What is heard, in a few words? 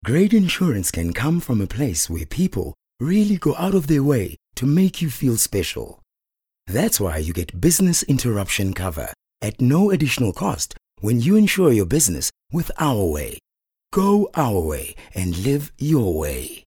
English Corporate